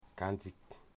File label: "teeth", Wamut